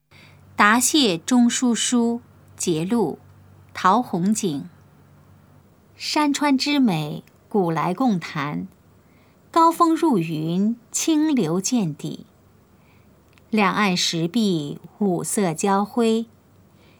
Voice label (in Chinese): putonghua; 普通話; 答謝中書書（節錄）